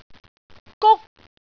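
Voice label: "guk1", Cantonese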